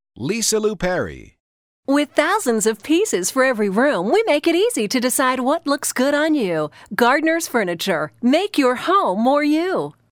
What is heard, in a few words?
retail announcer